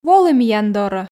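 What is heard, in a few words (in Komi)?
"волы миян дорӧ", Komi, female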